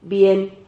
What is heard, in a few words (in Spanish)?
"bien", Silabas